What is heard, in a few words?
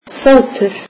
icelandic leg